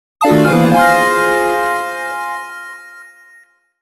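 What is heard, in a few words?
taunt, English; PaxSona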